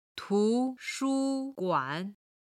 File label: "tu2 shu1 guan3", Chinese